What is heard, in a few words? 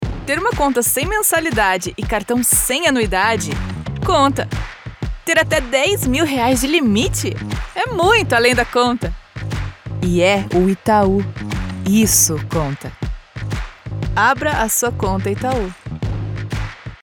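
commercial ad charismatic believable female brazilian portuguese; itau; abreconta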